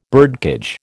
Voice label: "birdcage", American